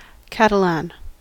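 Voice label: "Catalan", English us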